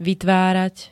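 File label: "vytvárať", Slovak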